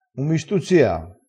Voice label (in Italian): "stuzzia", crespinese